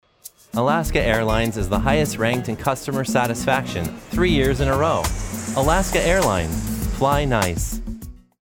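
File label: warm/friendly, commercial; Alaska Airlines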